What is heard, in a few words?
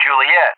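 "Juliett", English; JTAC; Alphabet; DCS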